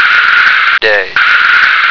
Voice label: "day", American